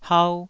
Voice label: "hau2", Taiwanese syllable